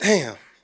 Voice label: "damn", expletives